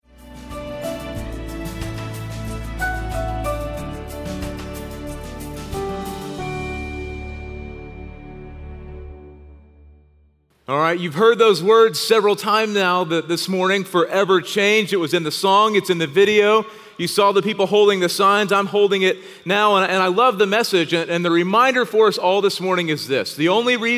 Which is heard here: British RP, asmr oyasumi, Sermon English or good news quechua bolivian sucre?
Sermon English